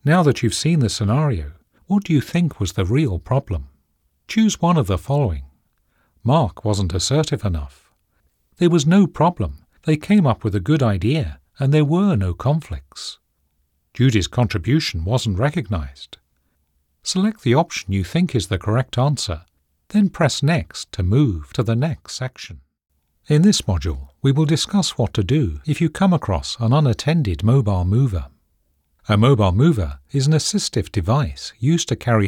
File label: elearning, voiceovers